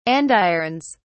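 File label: "andirons", English, British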